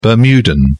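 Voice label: "bermudan", British English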